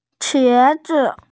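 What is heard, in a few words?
"qie2zi5", Mandarin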